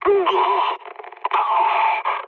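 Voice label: damaged pilot, mood low, French; War Thunder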